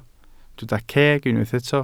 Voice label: "ceg", Welsh